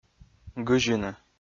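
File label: "гызьыны", Komi, male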